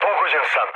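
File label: Serbian aircraft destroyed mood low, speech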